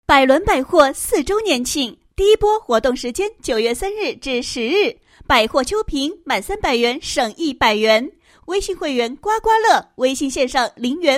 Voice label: 女 促销; 百伦百货4周年庆促销

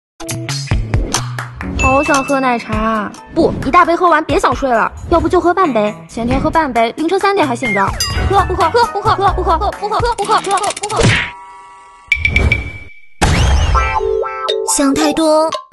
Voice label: 女 TVC; 每日鲜语奈雪的茶

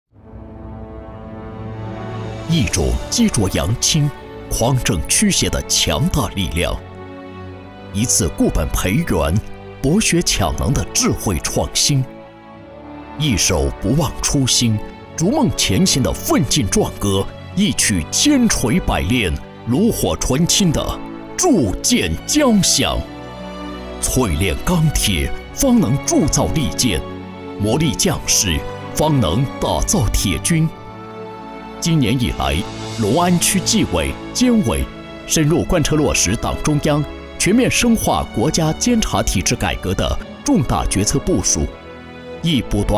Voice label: 政府工作汇报 大气浑厚

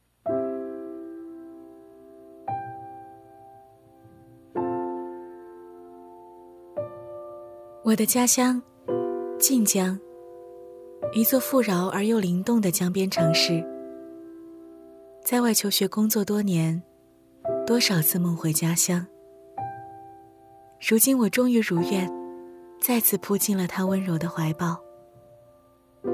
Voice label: 女 中文专题; 靖江

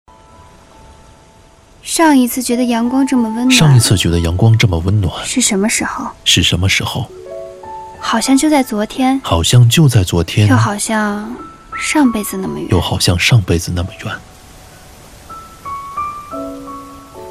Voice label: 男, 独白; 上一次觉得阳光这么温暖

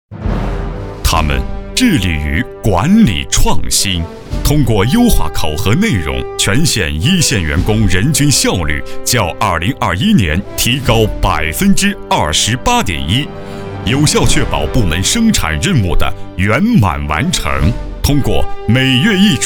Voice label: 男, 颁奖, 颁奖语气

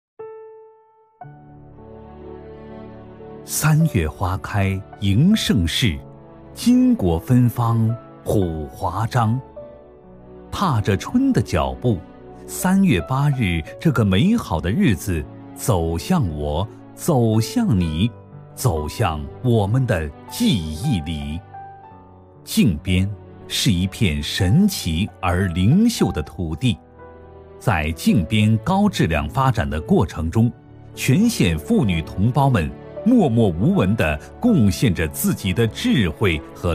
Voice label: 男 宣传片 歌颂表彰

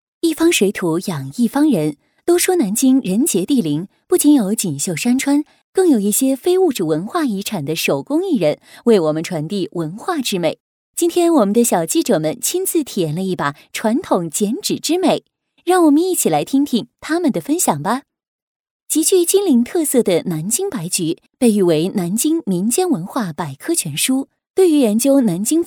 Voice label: 女 新闻 小记者 口语亲和

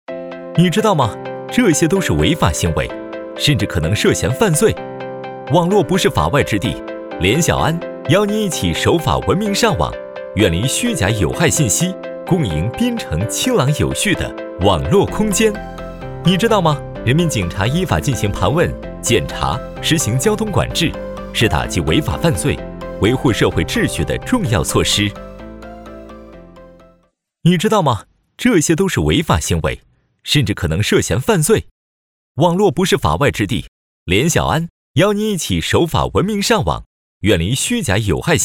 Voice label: 男 MG 公安动画

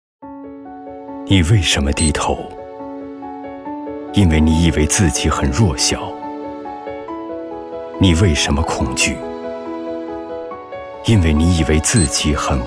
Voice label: 男 宣传片 为什么低头

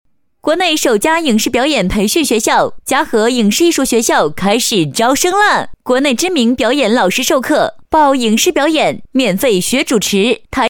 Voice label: 女-宣传广告; 影视表演培训学校招生广告

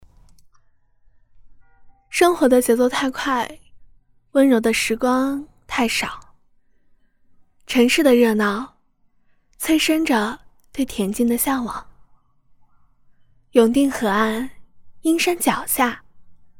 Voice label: 女 旁白 语速稍快 素人

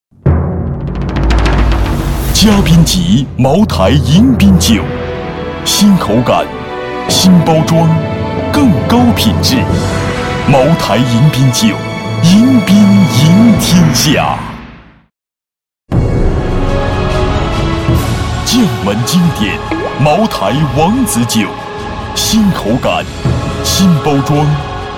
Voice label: 男 迎宾酒 大气 浑厚; 茅台-迎宾酒-王子酒

